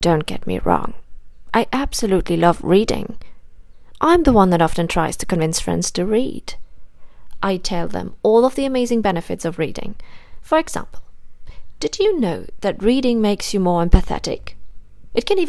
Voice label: Language Reel English